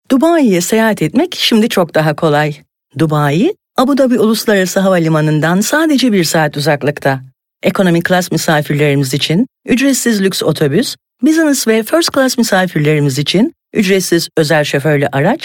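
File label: Turkish, narration; Golocalise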